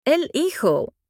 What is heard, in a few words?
boy in spanish